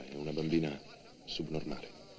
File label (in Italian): bambina subnormale